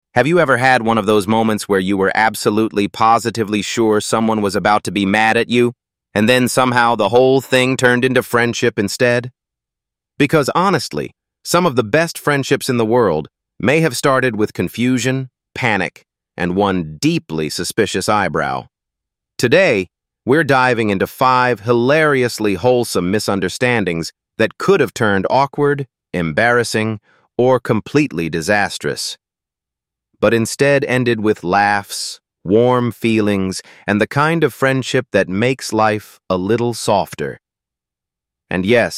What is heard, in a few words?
voiceover